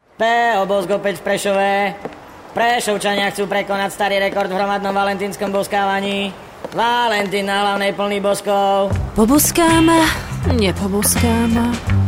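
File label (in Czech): reklami spot; opetvpresove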